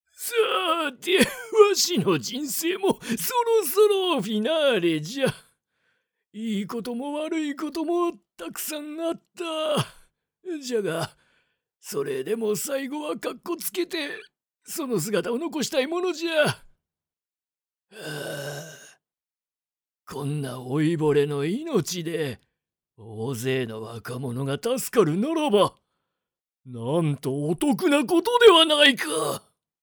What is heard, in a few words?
male; Old man